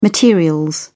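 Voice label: "material", British English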